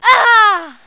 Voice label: "Ahhh", voices; Riviera; Fia